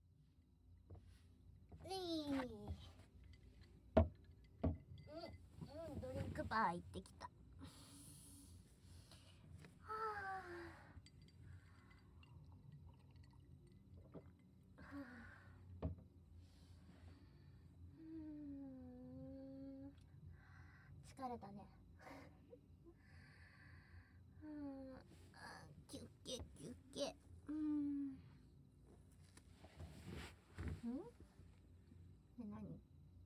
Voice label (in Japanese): カラオケギャルのJKトーク, ASMR